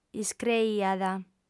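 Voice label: "iscreiat", Sardinian, female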